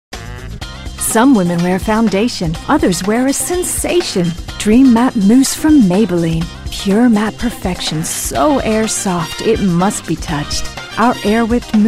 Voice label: English US